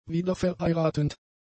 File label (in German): "wiederverheiratend", German; adjectives